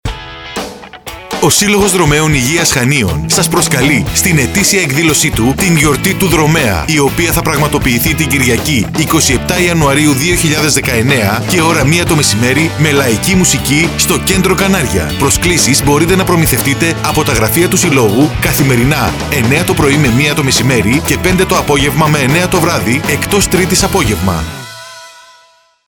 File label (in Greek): spot για κοπή πίτας; DROMOS FM